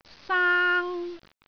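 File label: "saang1", Cantonese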